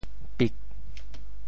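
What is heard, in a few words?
"pik4", Teochew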